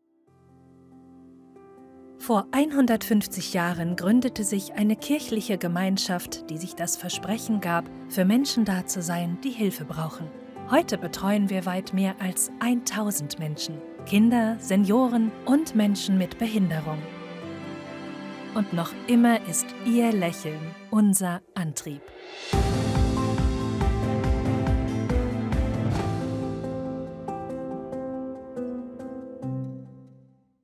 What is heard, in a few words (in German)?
Kinospot; Halberstadt; 150 Jahre Cecilienstift